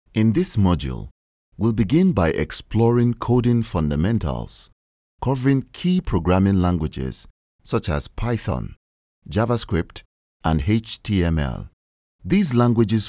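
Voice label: African English, male, e-Learning, Nigeria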